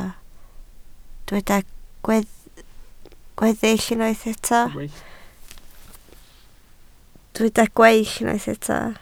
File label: "gweill", Welsh